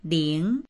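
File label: "ling2", Chinese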